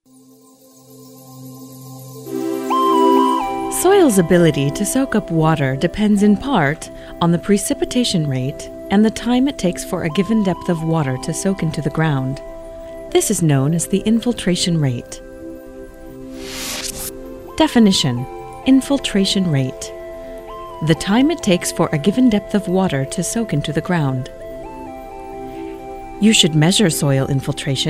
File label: narration, english female